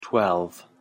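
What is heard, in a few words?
"twelve", English pronunciation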